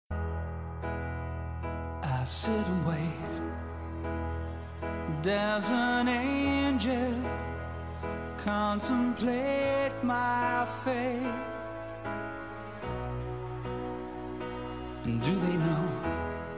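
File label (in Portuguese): DECLARACAO male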